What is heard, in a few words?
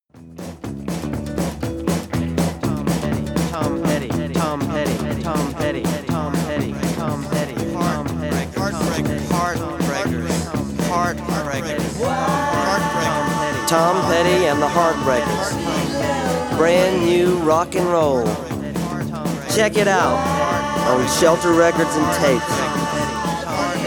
Radio Promotion Spot